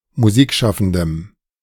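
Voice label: "Musikschaffendem", German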